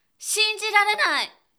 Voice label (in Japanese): 女性 shinjirarenai